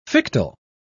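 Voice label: "fictile", English, British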